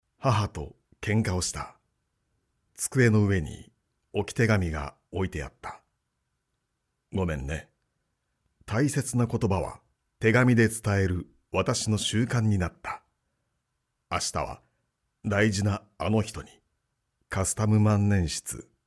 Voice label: male; 重厚な